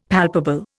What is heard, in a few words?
"palpable", Indian; Dictionary